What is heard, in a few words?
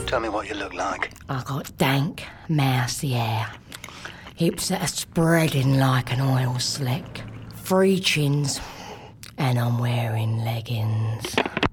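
Sleazy, English; Leggings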